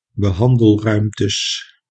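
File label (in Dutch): "behandelruimtes", Dutch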